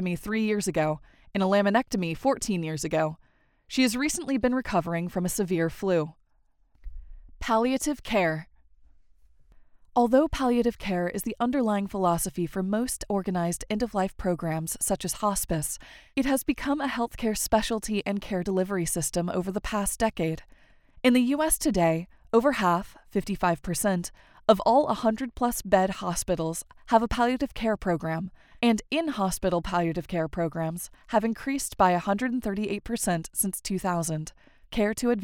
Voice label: english american medical narration, american voice actors